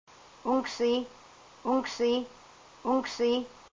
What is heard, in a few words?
surface, haida language; úngksii